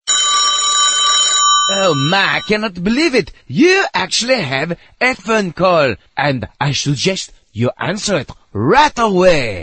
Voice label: french phone call ringtone